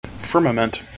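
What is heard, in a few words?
"firmament", US English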